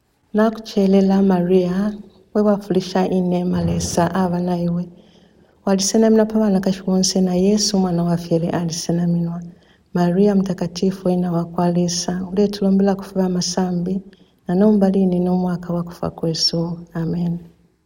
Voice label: hail mary bemba